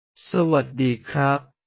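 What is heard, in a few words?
"sa wat dii khrap", Thai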